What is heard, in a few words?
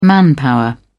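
"manpower", English, British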